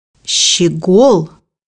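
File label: "goldfinch", Russian; bukvar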